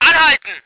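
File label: voice german vehicle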